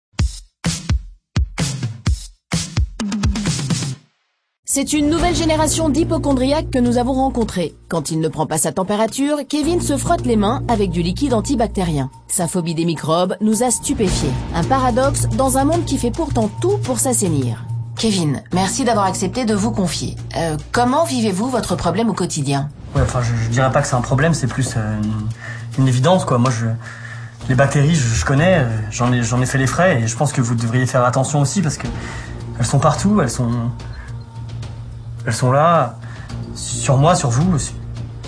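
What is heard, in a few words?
female, French, PUB INTERNET; GSDI antibacterien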